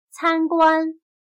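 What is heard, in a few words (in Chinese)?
"参观" Mandarin Chinese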